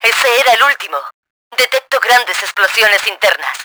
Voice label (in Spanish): engine down, Spanish; Battle Engine Aquila; XBOX